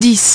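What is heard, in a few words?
"ten", English; iOS 4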